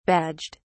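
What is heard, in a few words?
"badged", British English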